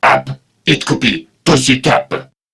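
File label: Patrol Crewmen, English; Dialog; Barks; Battle; Warframe; CorpusCrewmen; CrewmanA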